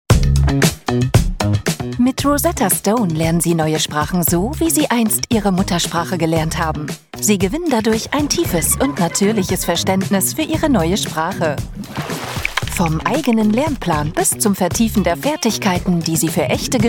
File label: German e-learning